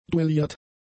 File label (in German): "duelliert", German; adjectives